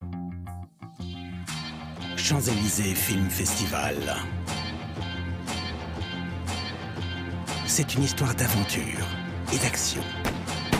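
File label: comercial, French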